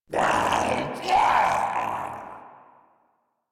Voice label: Spawn Ghoul Saw, en; Grineer Ghoul Sawman